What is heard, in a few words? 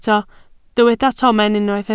"tomen", Welsh